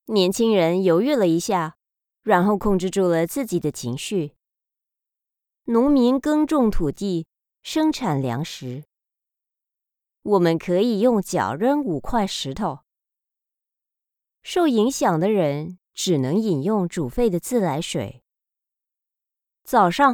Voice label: VO Audition